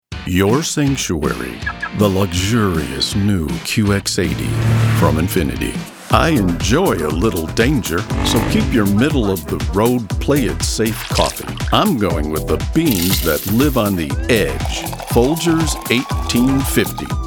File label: commercial, professional male voice over